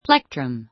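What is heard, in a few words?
"plectrum", American English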